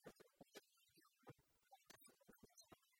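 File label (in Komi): мортыд уджын мортъяммӧ мортӧс удж мичмӧдӧ, Komi, female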